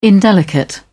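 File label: "indelicate", British English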